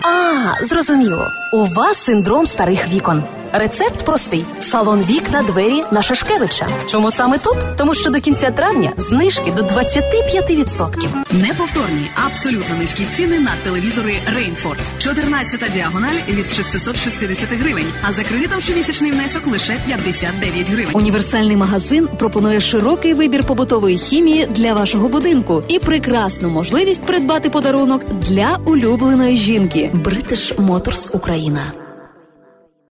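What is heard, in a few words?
Ukrainian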